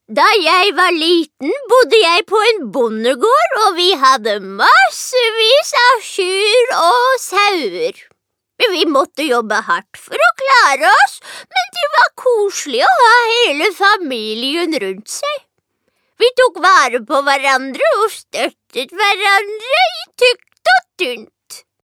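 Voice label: Norwegian dub old woman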